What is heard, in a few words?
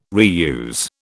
"reuse", British